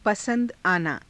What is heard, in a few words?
"pasa^da_aanaa", Hindi